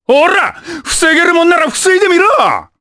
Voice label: Vox Skill jp; kingsraid

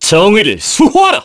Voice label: vox get, kr; heroes; Clause; kingsraid

